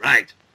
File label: "right" male